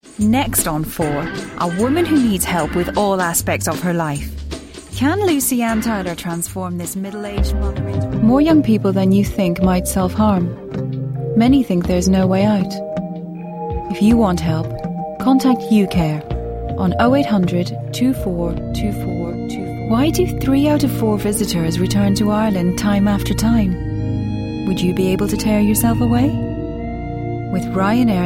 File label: Voicereel Montage